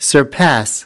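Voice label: "surpass", English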